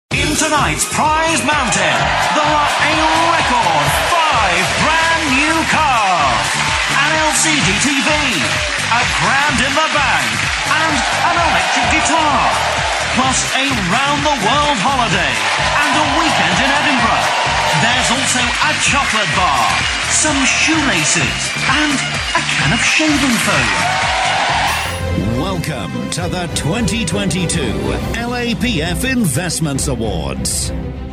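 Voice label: voice of god pre-recorded, British Believable Voice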